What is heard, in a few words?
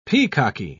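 "peacocky", British English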